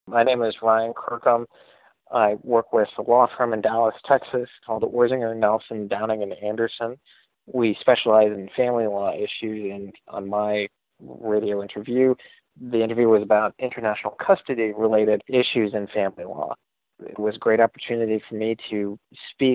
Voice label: Testimonial; Impact Makers Radio